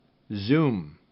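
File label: "zoom", English; Rhyme Test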